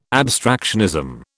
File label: "abstractionism", British